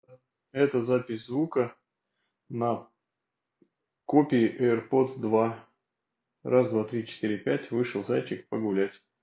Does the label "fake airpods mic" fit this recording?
Yes